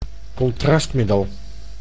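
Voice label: "contrastmiddel", Dutch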